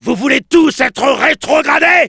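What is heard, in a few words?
Soldier jeers, French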